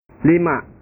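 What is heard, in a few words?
"lima", Indonesian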